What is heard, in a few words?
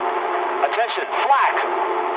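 English damaged aa mood low; War Thunder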